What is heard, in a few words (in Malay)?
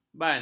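"ban", Malay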